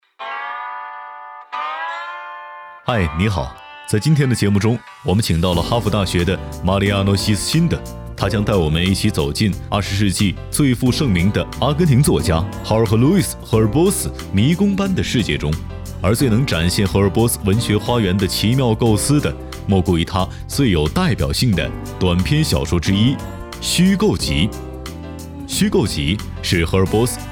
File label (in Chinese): 译制导语